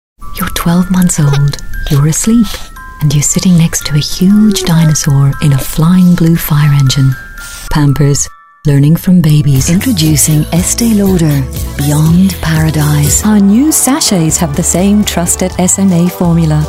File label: demo english; demo 2012